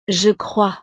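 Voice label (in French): "je crois", French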